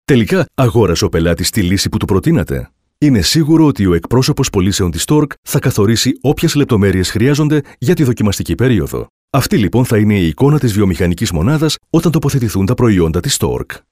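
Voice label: Male elearning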